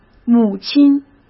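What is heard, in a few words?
"母亲", Mandarin Chinese; chinese flashcards; hsk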